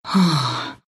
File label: happy, ru; dota2